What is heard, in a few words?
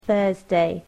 "Thursday", english